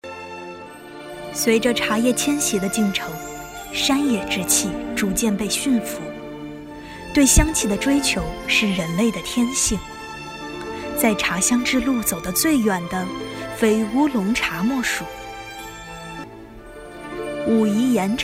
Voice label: 纪录片配音; 茶味人生

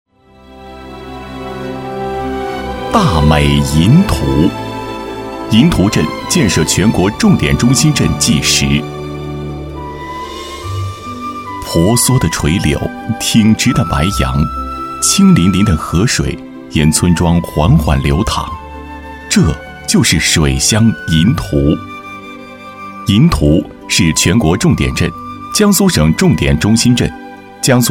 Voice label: 宣传; 银图

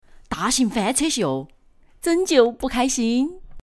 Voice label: 川话; 大型翻车秀

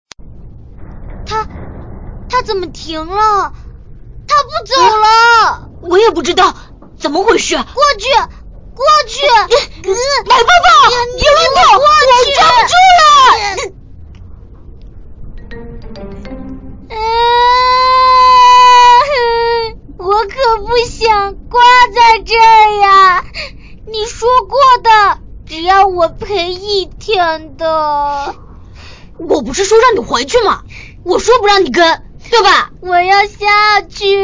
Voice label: 角色 男童 女童

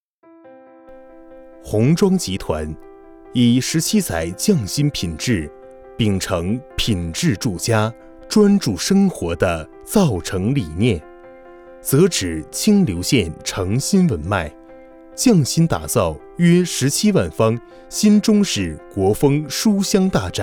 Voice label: 广告年轻商务; 宏庄集团

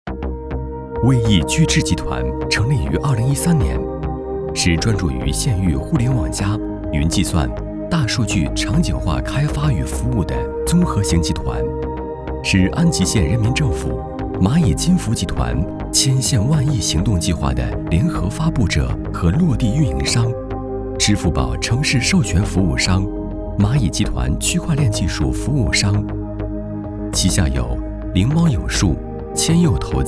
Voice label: 宣传片科技磁性; 灵猫

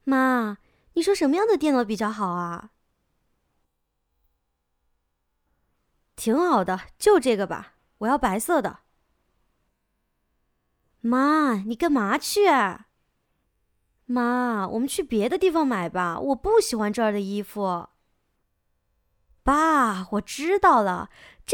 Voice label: 现代小说活泼少女

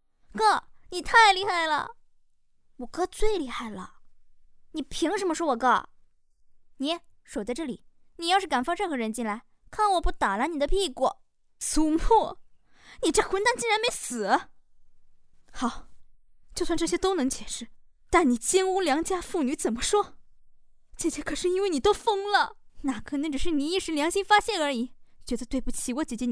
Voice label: 女; 混沌剑帝

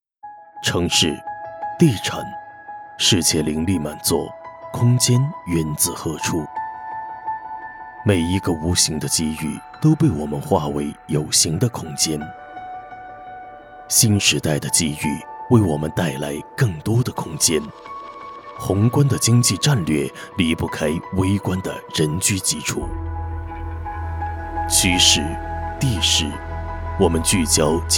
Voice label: 广告 低沉 高级 地产

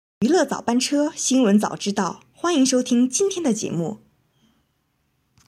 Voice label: 新闻播音腔

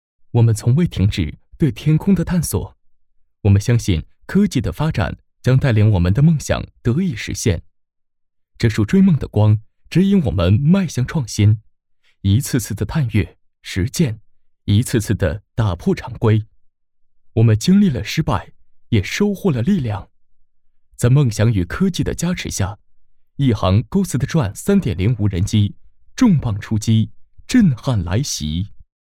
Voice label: 旁白 无人机

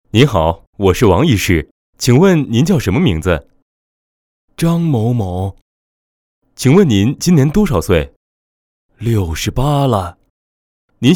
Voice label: 医生和老人对话

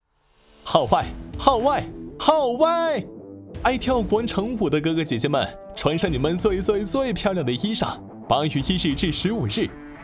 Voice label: 年轻活力; 号外号外

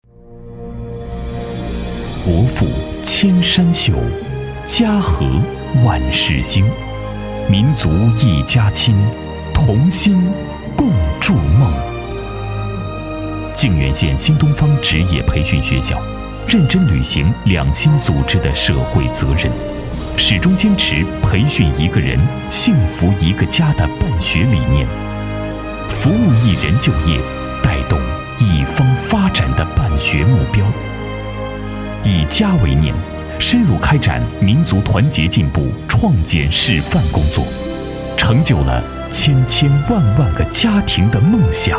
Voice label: 大气宣传片; 新东方

